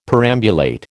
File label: "perambulate", American